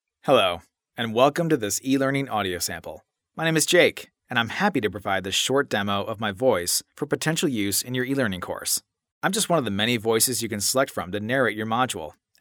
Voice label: Casual, e-learn

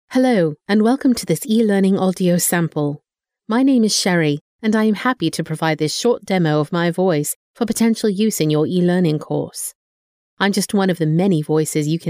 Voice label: Formal, British accent, e-learn

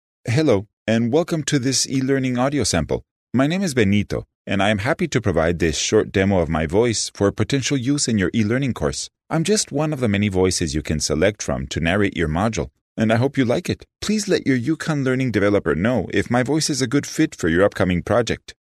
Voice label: Casual, Spanish accent, e-learn

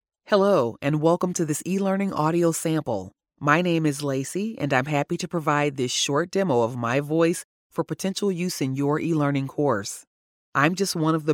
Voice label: Formal, voice talent

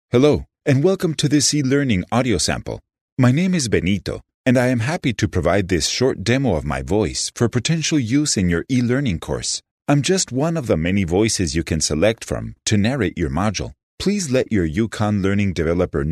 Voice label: Formal, Spanish accent, e-learn

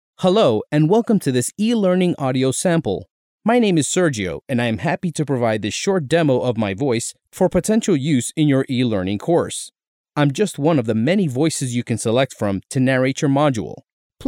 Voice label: Formal, voice talent; e-learn

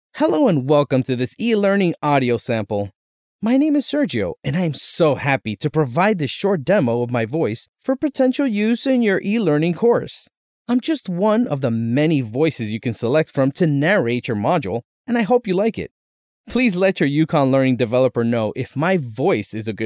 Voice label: Casual, voice talent; e-learn

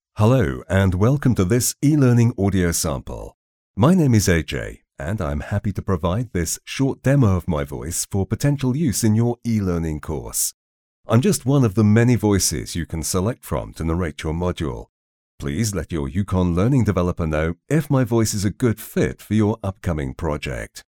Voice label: Formal, British accent, e-learn; Are you hoping for a more formal or traditional tone for your course?

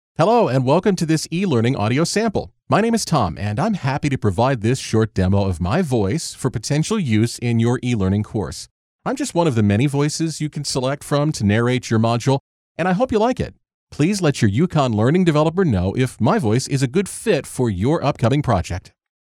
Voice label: Casual, voice talent

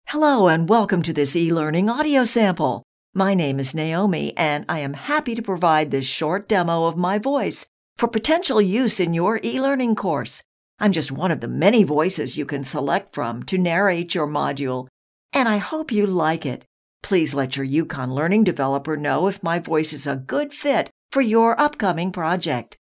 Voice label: Casual, voice talent; e-learn

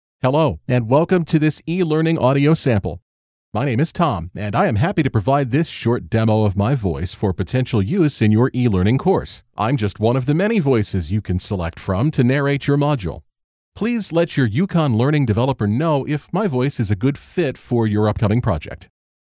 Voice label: Formal, voice talent

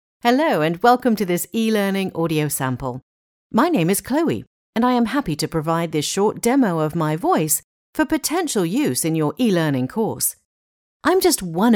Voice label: Casual, British accent, e-learn

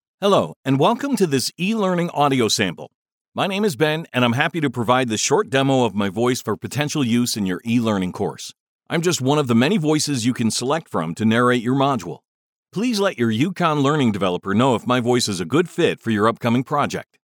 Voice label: Formal, voice talent

